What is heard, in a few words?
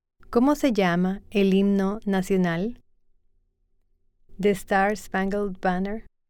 Spanish